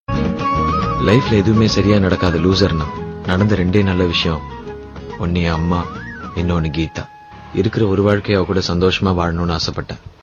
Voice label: punch, dialogue; sivakarthikeyan